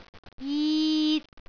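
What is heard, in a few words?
"jit3", Cantonese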